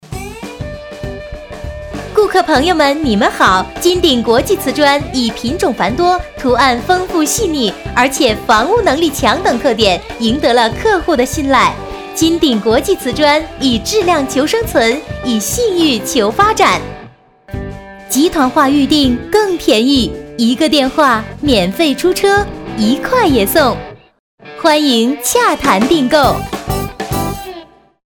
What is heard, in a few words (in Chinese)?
促销, 国语女声配音; 金鼎国际磁砖